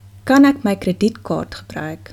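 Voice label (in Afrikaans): "kredietkaart", Afrikaans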